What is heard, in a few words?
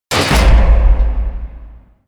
Custody (text message tone); Payday The Heist; Stinger